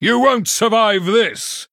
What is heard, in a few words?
Male Human Greetings